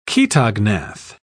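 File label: "chaetognath", British English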